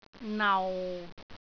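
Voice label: "nau6", Cantonese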